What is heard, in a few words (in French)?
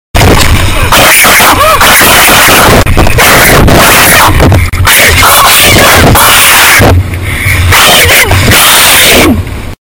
enfant espagnol enrage